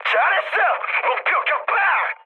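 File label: Korean bomb success mood high; War Thunder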